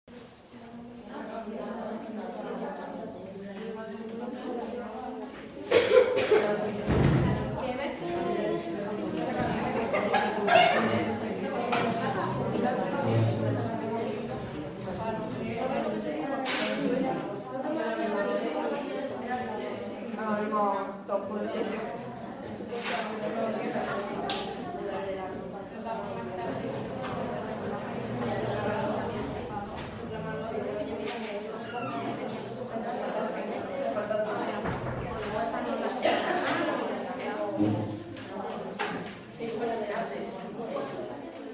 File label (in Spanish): Jovenes hablando, colegio; ambientes